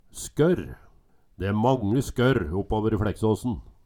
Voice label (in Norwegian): "skorr", Numedalsmål